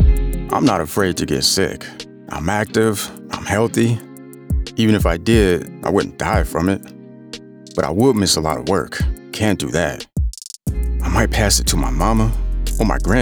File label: Radio; KCPH; Vax